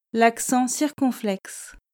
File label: "l'accent circonflexe", French